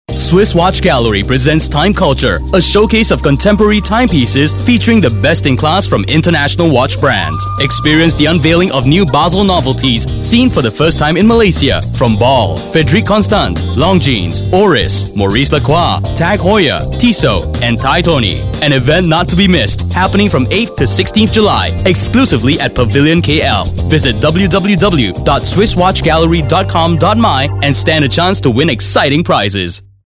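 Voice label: English Suave; Valiram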